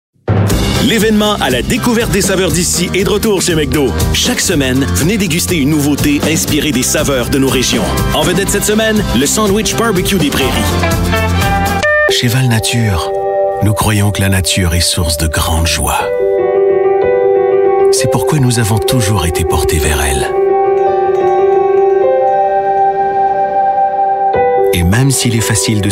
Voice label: french voice over male commercial

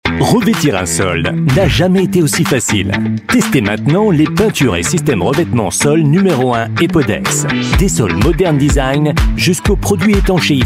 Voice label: french voice over male commercial

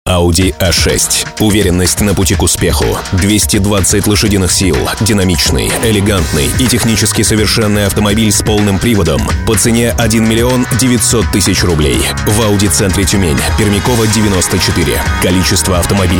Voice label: russian voice over male commercial

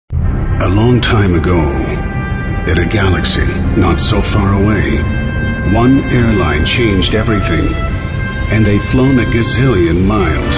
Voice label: english american voice over male

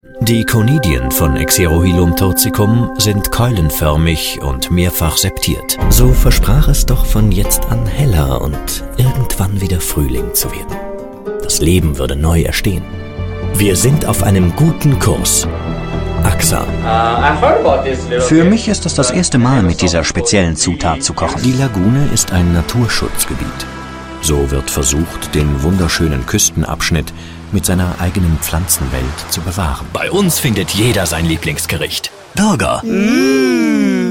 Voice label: german voice over male commercial

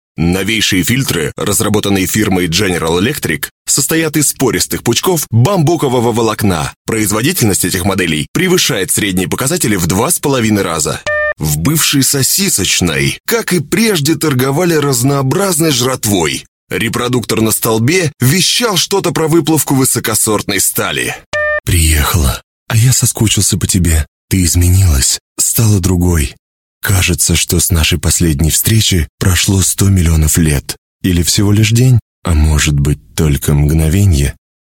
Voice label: russian voice over male commercial

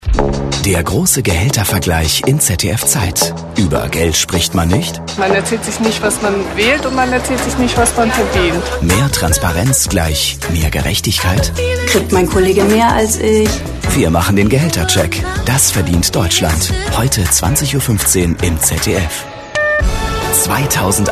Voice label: german voice over male commercial

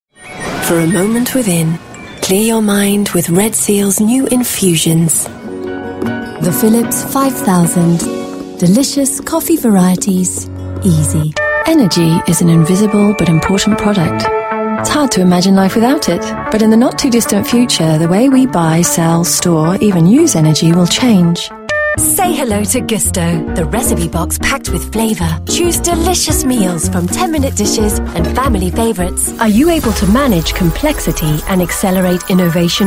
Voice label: english british voice over female commercial

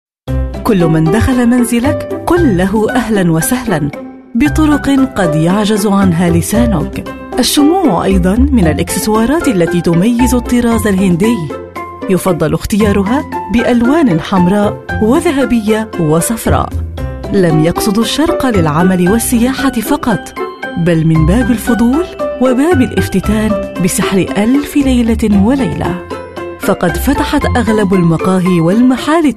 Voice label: arabic voice over female commercial; Arapça Seslendirme

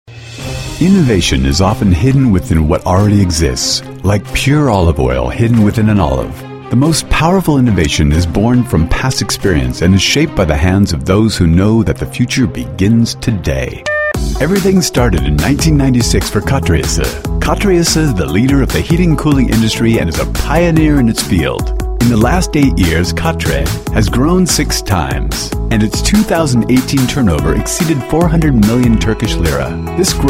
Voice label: english american voice over male

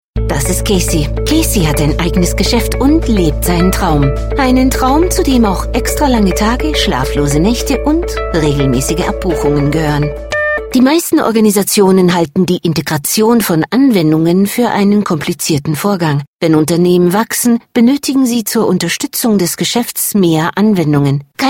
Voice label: voice over female commercial

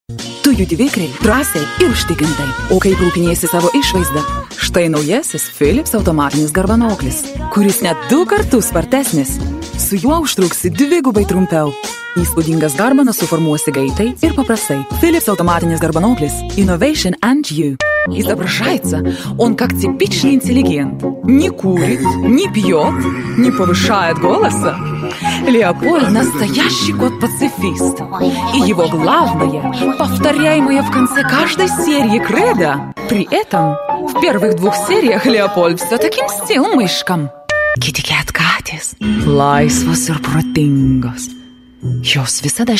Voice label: russian voice over female commercial

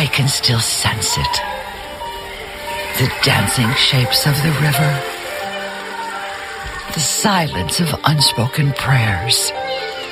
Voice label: english british voice over female commercial

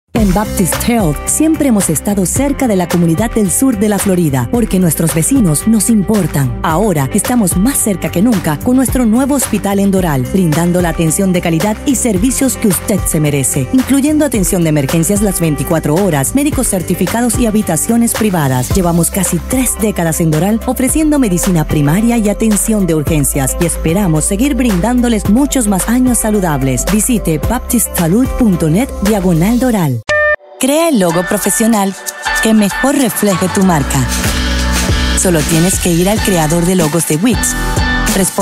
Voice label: spanish voice over female commercial; İspanyolca Seslendirme

